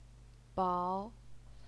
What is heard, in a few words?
"bao2", Chinese pinyin